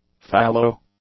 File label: "fallow", english; adjectives